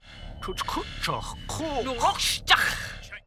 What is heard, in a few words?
klingon language